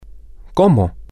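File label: how, spanish, male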